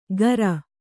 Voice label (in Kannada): "ಗರ", Kannada